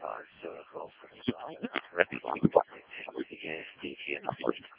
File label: decoded speech, parrot